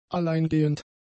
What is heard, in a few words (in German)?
"alleingehend", German; adjectives